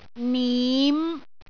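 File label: "nim5", Cantonese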